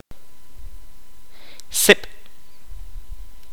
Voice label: "humo", Kaqchikel

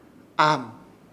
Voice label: "AHAM" salir, Ishir Chamacoco

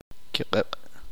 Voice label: uspanteko viento

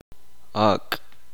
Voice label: "nuevo", uspanteko

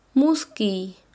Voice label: "oler", Quechua Chanka

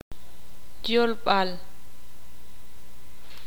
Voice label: "idioma", ixil

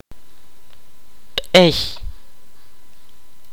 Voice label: "camino", kaqchikel

